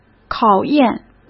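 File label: 考验, Mandarin Chinese; chinese flashcards; hsk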